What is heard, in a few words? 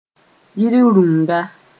"yir rũnga", Mooré